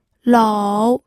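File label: "lou", third tone, pinyin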